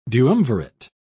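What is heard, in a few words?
"duumvirate", British English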